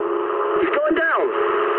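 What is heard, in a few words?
English aircraft destroyed mood med; War Thunder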